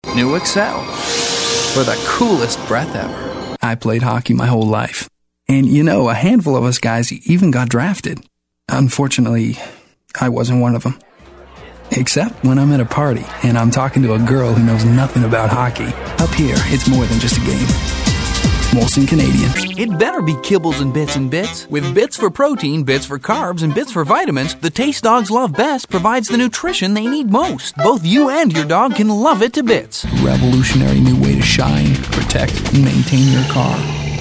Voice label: commercial male